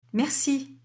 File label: "Merci", French; French page 8